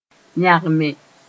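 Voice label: "yãgme", Mossi; walk quickly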